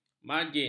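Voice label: "margin", Malay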